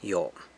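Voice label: "Yo", Okay, San Lucas Quiaviní Zapotec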